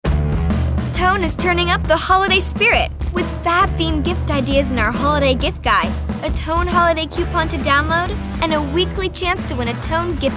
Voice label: kids tone